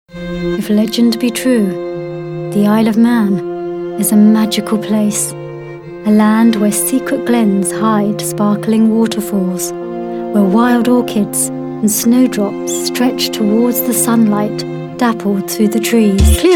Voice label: english female voices british accent